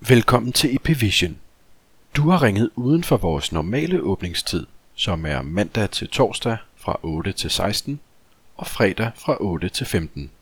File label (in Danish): mand; Ipvision